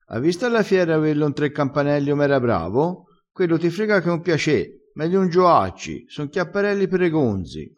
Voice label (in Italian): "gonzo", crespinese, vocabolario dialettale